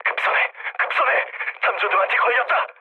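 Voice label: Korean searchlight mood low, speech; War Thunder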